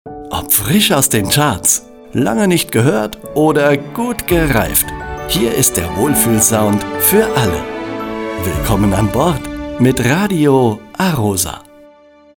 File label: Radio Station ID male; Radio A-ROSA